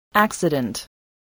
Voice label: "accident", English voice